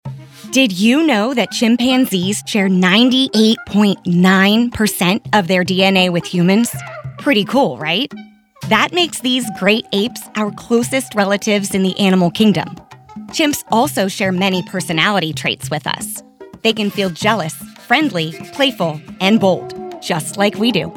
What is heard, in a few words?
Narration